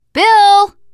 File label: name, teen girl; bill